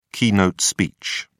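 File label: "keynote speech", British English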